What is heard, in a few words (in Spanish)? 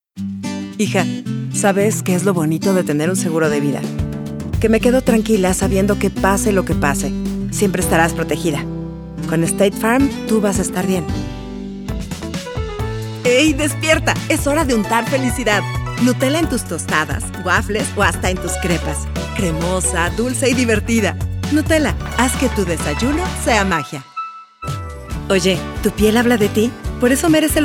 Comercial